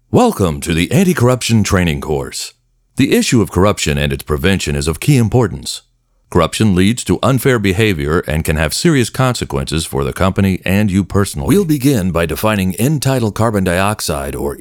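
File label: soft spoken genuine credible male voice over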